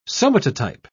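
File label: "somatotype", British English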